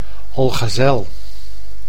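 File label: "algazel", Dutch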